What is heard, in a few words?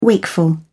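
"wakeful", British English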